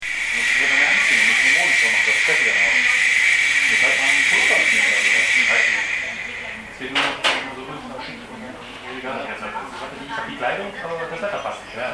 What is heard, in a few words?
german voice scraping